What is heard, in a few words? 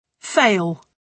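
"fail", British English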